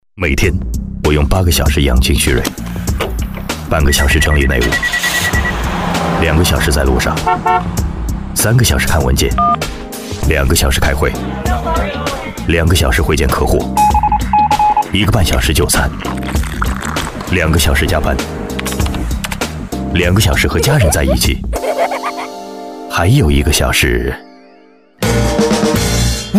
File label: MANDARIN Male Adult; Ker Sound Studio Shanghai China